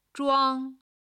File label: "zhuang1", Chinese